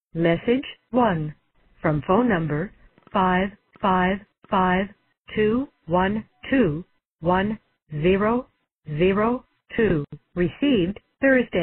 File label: weird call redacted